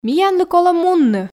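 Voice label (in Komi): миянлы колӧ мунны, Komi, female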